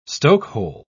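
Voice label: "stokehole", English, British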